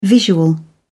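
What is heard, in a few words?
"visual", English, British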